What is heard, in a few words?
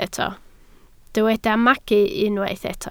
"magu", Welsh